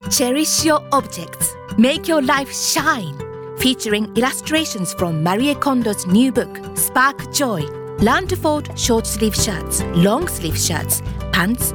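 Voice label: English Commercial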